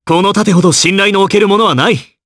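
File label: voice, Japanese; Clause; kingsraid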